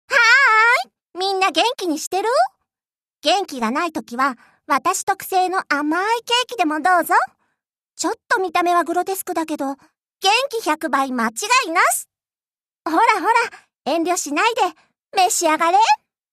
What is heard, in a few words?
cheerful girl